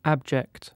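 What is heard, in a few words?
"abject" British English; pronunciation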